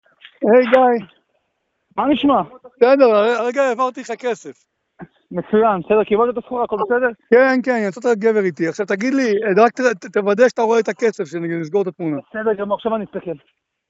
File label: Call recording